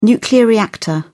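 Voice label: "nuclear reactor", British English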